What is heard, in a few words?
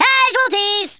uh oh, Drill Sergeant; Worms Armageddon